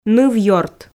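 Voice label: "нывъёрт", Komi, female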